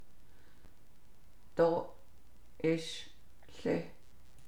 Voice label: navajo adverb expresses questioning uncertainty wonderment